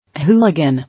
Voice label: "Hooligan", English